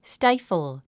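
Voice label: "stifle", English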